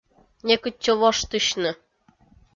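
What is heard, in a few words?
"некытчӧ воштысьны", Komi, female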